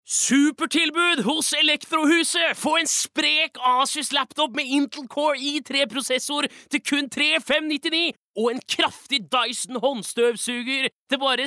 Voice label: Norwegian hard sell, norwegian male